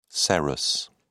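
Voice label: "serous", British English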